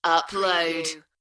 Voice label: UK female, Upload; beanstalk audio